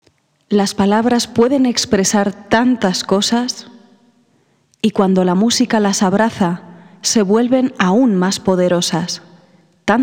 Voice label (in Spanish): Muestra locución para certamen literario, narradora